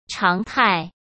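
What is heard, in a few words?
"常态", Mandarin Chinese; hsk; chinese-flashcards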